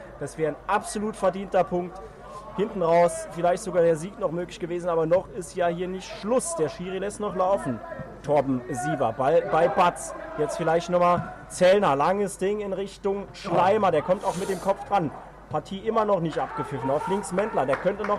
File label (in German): Fanradio eskaliert am Mikro beim Siegtor; FCS